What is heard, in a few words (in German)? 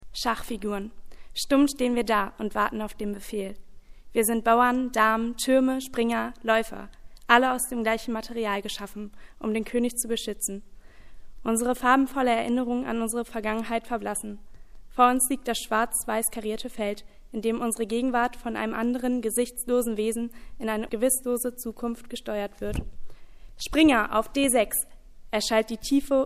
Poetry Slam; schachfuguren